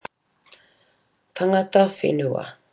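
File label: "tangata whenua", Māori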